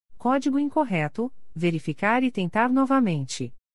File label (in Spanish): CODIGO INCORRECTO, pt woman